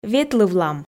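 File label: "ветлывлам", Komi, female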